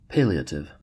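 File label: "palliative", American English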